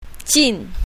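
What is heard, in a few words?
"jin4", Chinese pinyin, south, female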